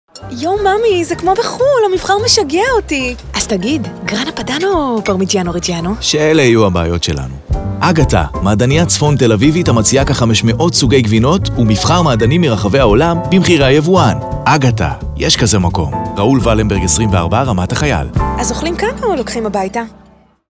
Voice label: radio; Grana Padano